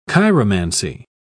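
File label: "chiromancy", English, British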